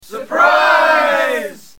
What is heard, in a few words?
Surprise